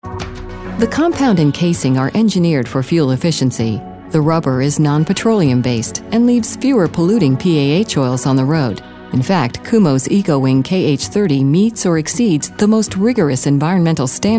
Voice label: informative

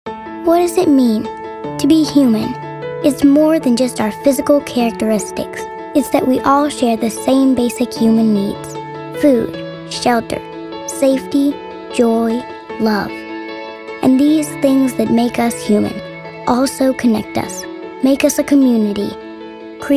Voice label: thoughtful

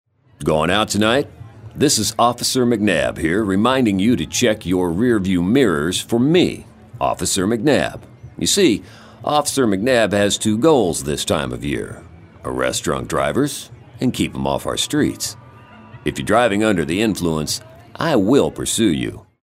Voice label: Authoritative

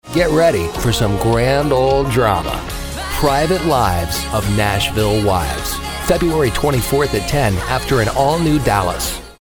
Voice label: Television Promo

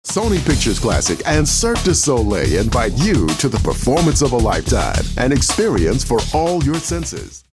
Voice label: upbeat

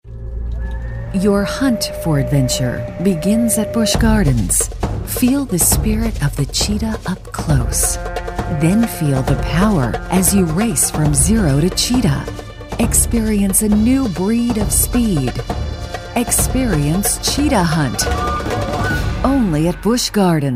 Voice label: mysterious

